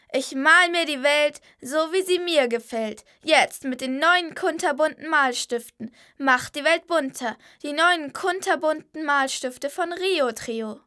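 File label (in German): Mädchen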